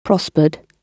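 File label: "prospered", British English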